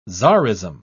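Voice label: "tzarism", British English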